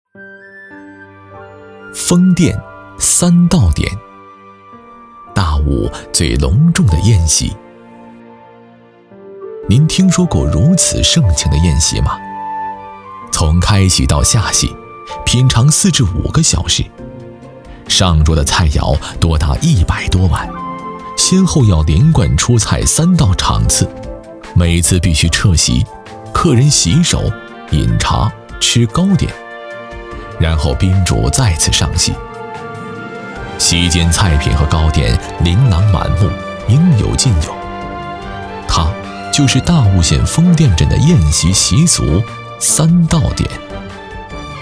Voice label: 男 纪录片; 舌尖-大悟最隆重的宴席

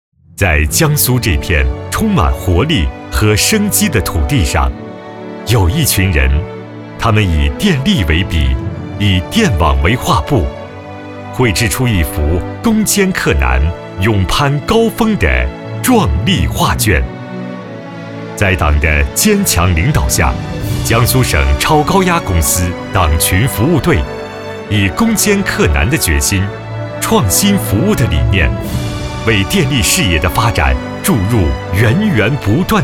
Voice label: 男 党建; 省超党建

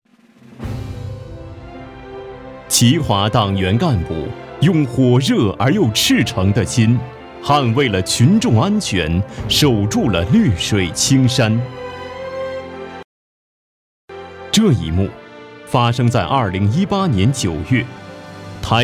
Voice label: 男 专题 党建; 吉华党建

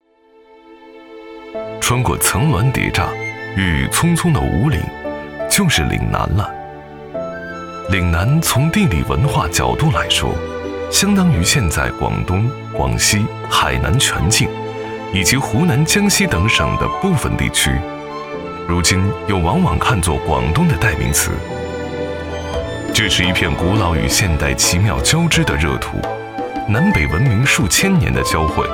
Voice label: 男,舌尖风格 岭南

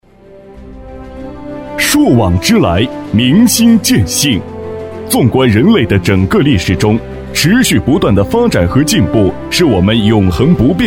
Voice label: 男 企业宣传 年会; 阳光人寿年会

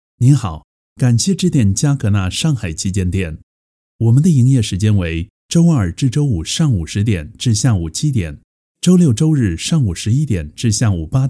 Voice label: 英男 中文 公司彩铃

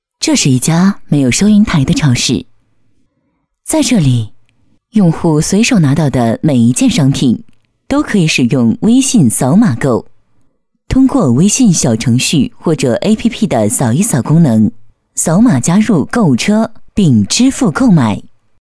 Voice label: 女 解说感; 这是一家没有收银台的超市

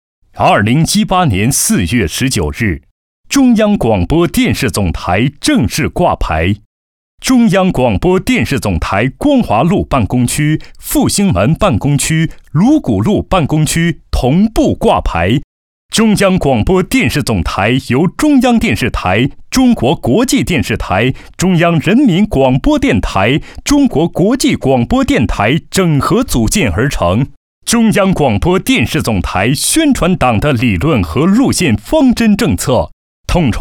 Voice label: 男 专题 大气; 中央电视总台

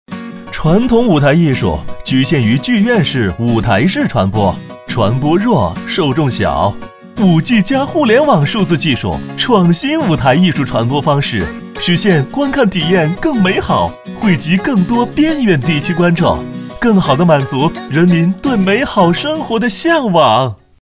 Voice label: 男 广电广告; 5G; 互联网

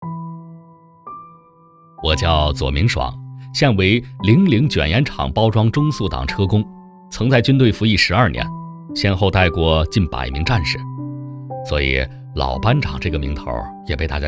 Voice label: 男 老班长 人物介绍

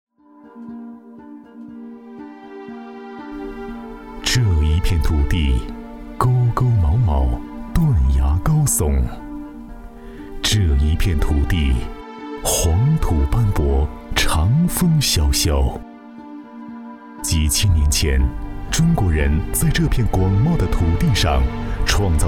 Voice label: 男 记录片 记录风格; 西北农林科技大学

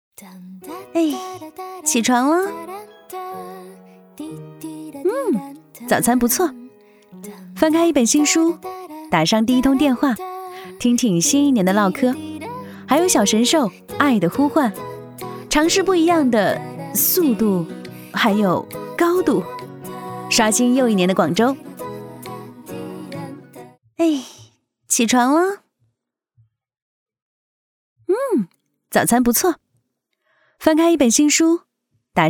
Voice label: 女 宣传片 活泼俏皮

